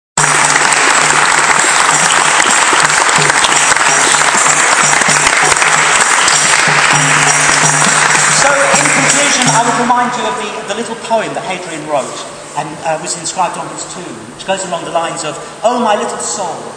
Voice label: British Museum story teller